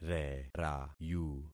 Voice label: "rerayu", concat syllables; Affixing experiment